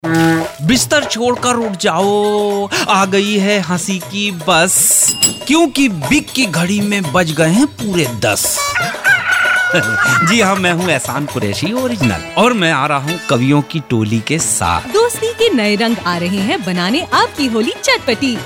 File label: Radio AD Time Check